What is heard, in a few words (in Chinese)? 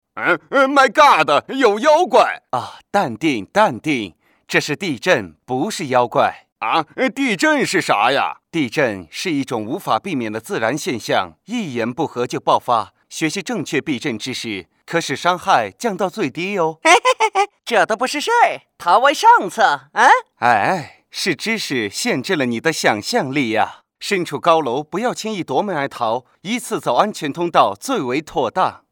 男 模仿西游记师徒四人